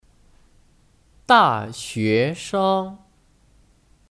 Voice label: "大学生", Dàxuéshēng; Kata benda; Mahasiswa/i

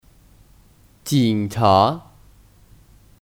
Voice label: "警察", Jǐngchá

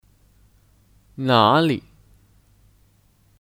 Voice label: "哪里", Nǎlǐ

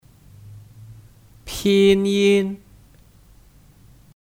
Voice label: "拼音", Pīnyīn

